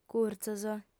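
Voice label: "curtzos", female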